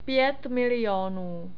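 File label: "pět milionů", Czech; numbers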